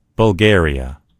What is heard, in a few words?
"bulgaria", en_US; gcompris; geography